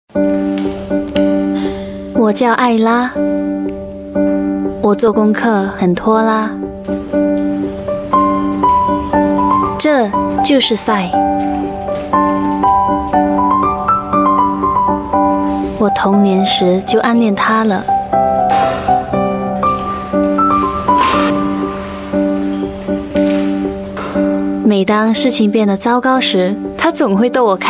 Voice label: chinese voice over female narrator